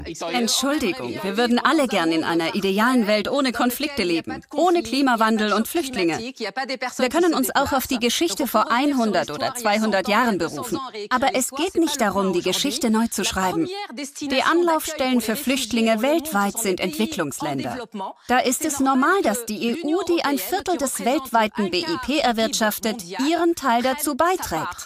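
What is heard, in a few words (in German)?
voiceover Magazin souverän tiefer; arte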